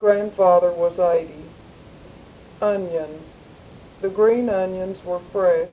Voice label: Personal history and reading by 49 year old white female Texas; american english dialect